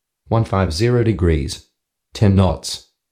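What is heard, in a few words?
wind 150, Australian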